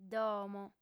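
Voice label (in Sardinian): "domo", female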